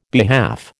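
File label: "behalf", American English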